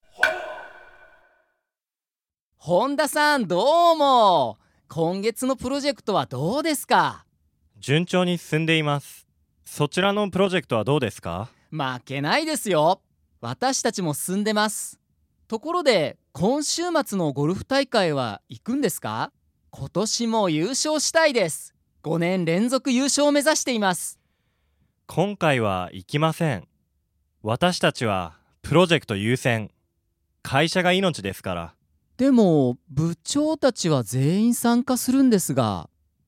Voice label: dialog; JapanesePod101; Beginner Season 1